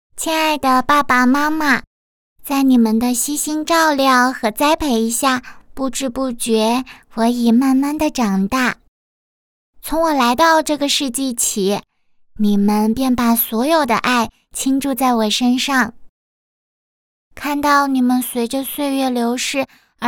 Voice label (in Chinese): 女 女童